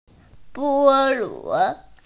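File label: "pineapple", Mandarin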